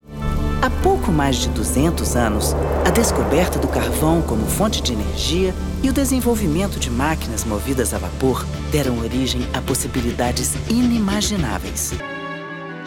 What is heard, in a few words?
portuguese brazilian commercial, voice actors